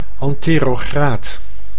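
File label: "anterograad", Dutch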